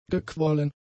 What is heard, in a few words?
"gequollen", German; adjectives